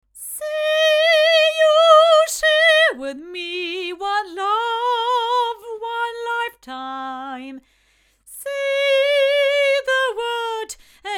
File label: cardioid female; Studio Projects LSD2